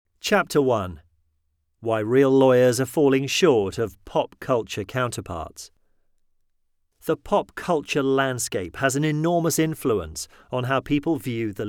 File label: male british voiceover audiobooks; unshackled